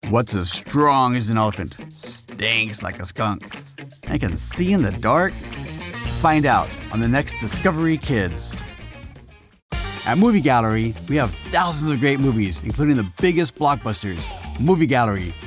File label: VO promo